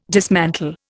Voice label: "dismantle", Indian